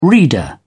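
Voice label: "reader", British English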